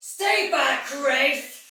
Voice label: Soldier Female, Battle Barks; Sinecure; en

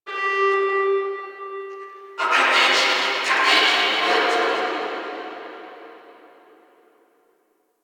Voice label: Guild Announcer; Ambience; Warframe

